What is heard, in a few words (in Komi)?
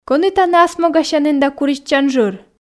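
кӧні тані асмогасянін да куритчан жыр, Komi, female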